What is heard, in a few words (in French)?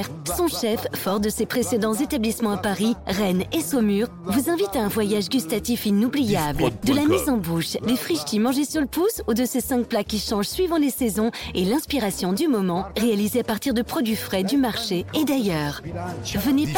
Spot Restaurant